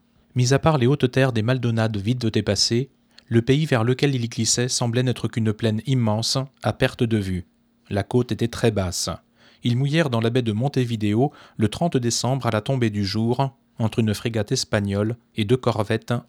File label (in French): mic comparaisons philips el6021